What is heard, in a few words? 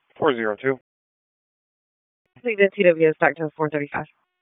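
Police Dispatch, p25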